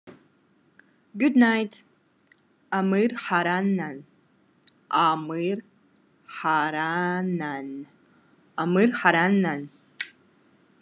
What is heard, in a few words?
Khakas Good night